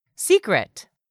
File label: "secret", english; 3000 words